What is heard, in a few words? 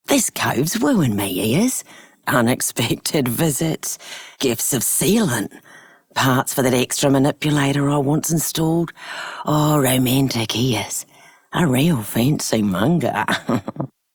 Female Scum, en; Warframe; Solaris Venus